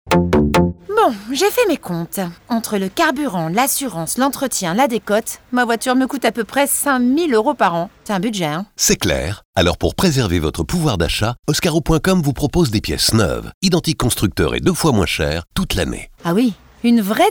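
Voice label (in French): tonique decidee, comédienne voix off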